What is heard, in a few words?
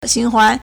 "xun2huan2", Chinese